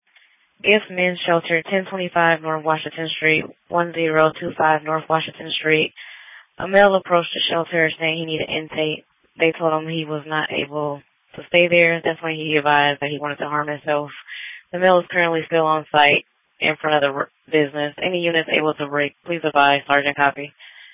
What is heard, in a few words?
Police Dispatch